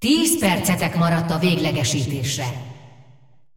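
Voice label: announcer female tournaments lockin 10min, hu_hu; clash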